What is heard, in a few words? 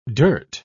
"dirt", pronounce